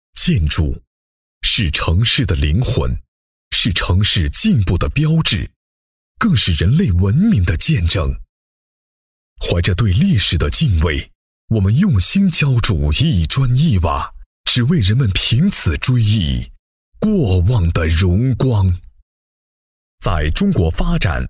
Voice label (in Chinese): 男 专题配音 厚重 气势; 中建一局; 大气磁性